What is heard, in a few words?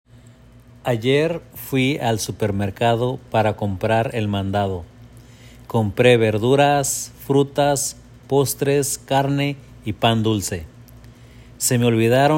Spanish Voice Memo; Propuesta; ¡No Es PeRRo, Es PeRo!; StMU Research Scholars